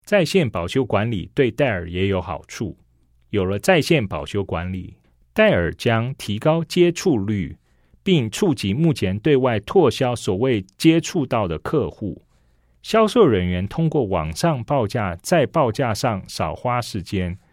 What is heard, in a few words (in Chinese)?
CHINESE